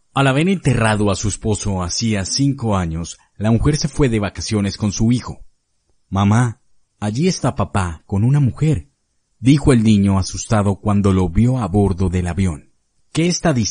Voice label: 西班牙男声

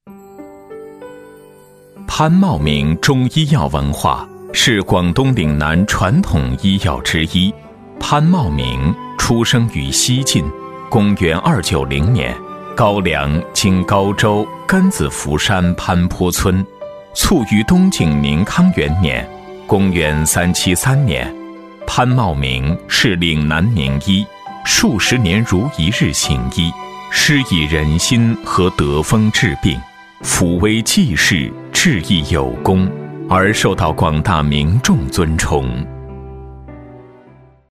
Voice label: 男 纪录片 低沉深情

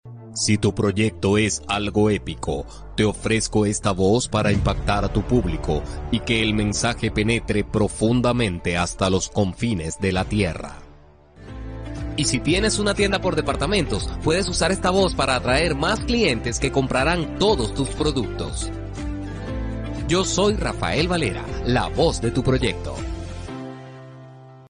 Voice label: 西班牙男声

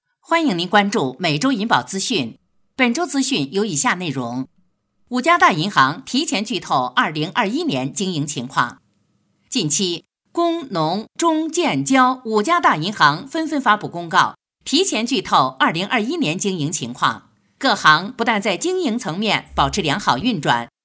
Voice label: 女, 新闻播报, 银保资讯, 新闻正式; 每周银保资讯

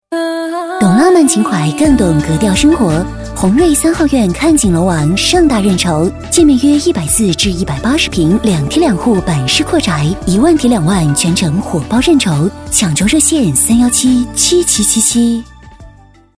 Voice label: 女 房地产广告 电台磁性; 房地产广告《宏瑞三号院》

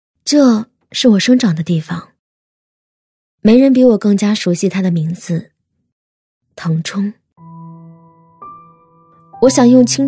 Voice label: 女-旅游宣传 旅游号-腾冲翡翠--亲切甜美; 甜美亲和; 甜美亲切

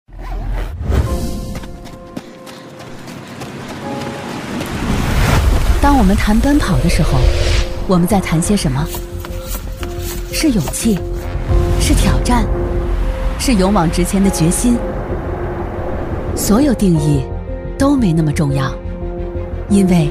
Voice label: 女, 宣传片, 个性; 激情力度; 女42-全能型; 欧拉汽车

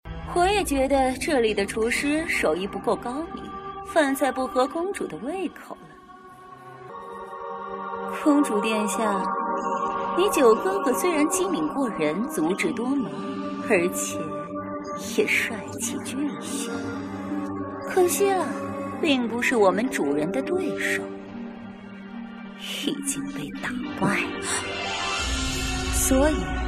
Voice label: 女, 角色, 女反派, 御姐音

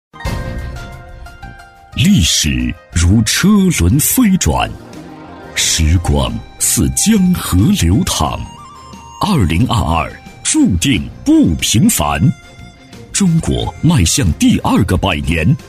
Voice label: 男, 党政宣传片, 世界艾草看中国, 硬朗抑扬顿挫; 党建专题配音类型

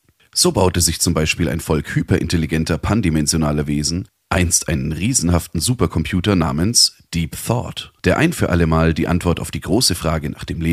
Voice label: 德语男声

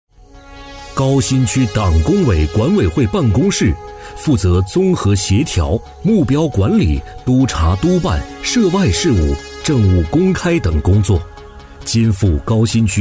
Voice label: 男 专题片 平稳大气; 磁性稳重; 高端大气

